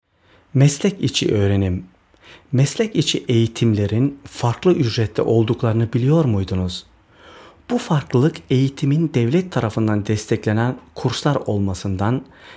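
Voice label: Turkish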